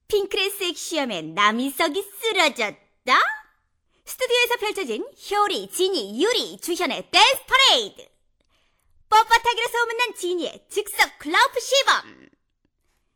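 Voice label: Korean, female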